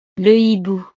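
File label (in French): "le hibou", French; el búho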